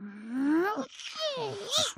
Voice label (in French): ENERVEMENT; mirumiru